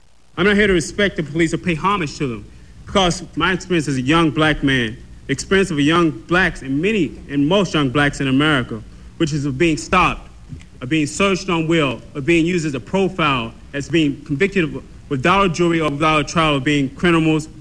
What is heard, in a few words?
AAE Pittsburgh male, African American